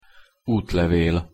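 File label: "utlevel", Hungarian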